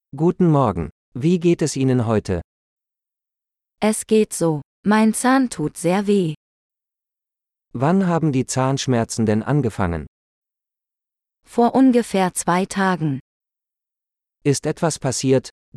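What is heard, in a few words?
A Dentist Appointment - Dialogue, German; de-en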